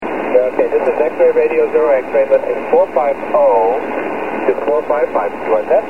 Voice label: 28 ssb